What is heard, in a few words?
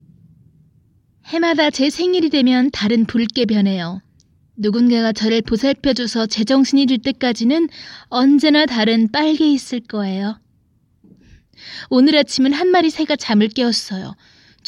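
Monologue Korean; GoLocalise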